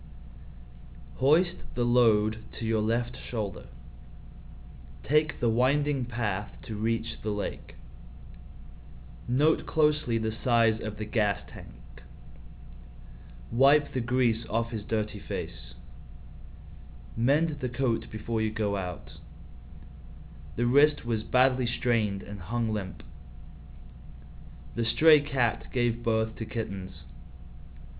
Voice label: british, open speech; uk